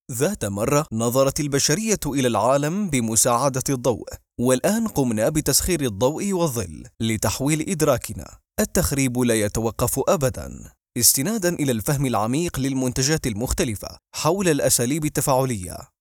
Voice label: arabic e-learning